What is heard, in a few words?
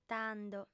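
"tando", female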